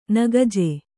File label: "ನಾಕಜ", kannada